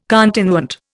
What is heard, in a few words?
"continuant", Indian